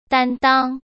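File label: "担当", Mandarin Chinese; chinese flashcards; hsk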